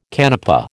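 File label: "canapé", American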